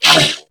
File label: Pain, Grineer Male; New War; Narmer